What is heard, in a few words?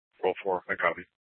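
Scottsdale Police South Dispatch; Scttsdle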